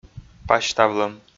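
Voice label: "пасьтавлӧм", Komi, male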